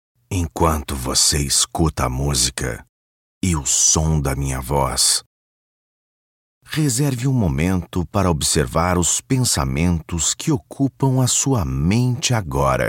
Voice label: brazilian portuguese meditation warm